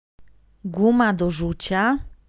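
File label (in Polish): "guma do zucia", Polish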